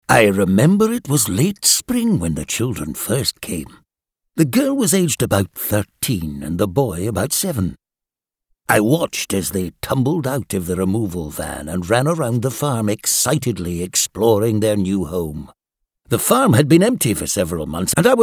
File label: Audio books; England